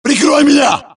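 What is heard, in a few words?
suppress, dialogue, Allies; mohaa; Mission 3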